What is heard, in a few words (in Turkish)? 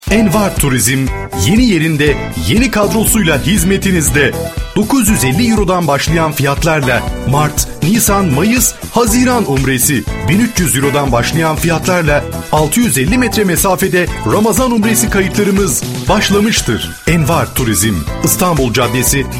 reklam dinamik; envarradyo